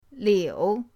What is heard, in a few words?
"liu3", pinyin